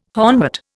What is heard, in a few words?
"hornwort", Indian